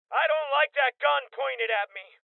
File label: Voices Hostage Taken Male Young; Rebel Cops